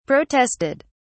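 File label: "protested", British English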